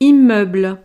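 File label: "immeuble", French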